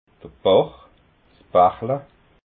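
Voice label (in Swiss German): "bach", Alsatian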